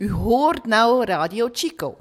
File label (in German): Spanisch; chico